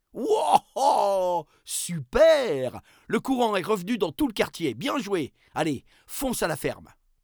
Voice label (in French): narrateur; courant repare; La-Fabrik